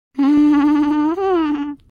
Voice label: medium talking sfx; player talking sfx